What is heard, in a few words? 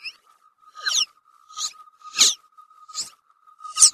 martian speak